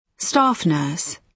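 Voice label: "staff nurse", British English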